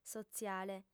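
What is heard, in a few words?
"sotziale", female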